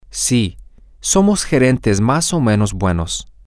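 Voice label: yes we Pablo and Juan are somewhat good managers, Spanish, male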